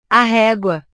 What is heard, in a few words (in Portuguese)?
"a regua", portugues